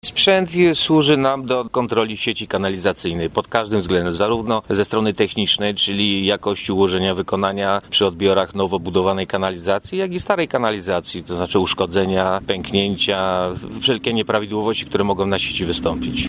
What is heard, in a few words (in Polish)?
robot